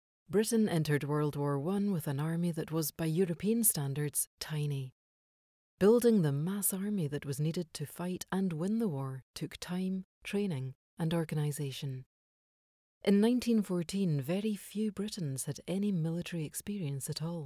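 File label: Calm authoritative informed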